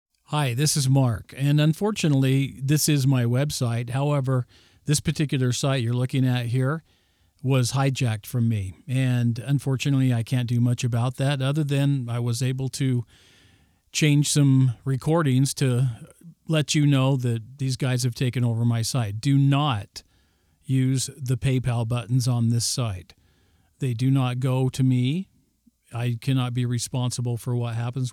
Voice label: Personal Voicemail cell phone